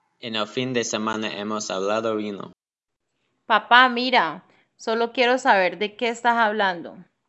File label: speaker turns input spanish